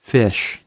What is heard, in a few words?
fish, American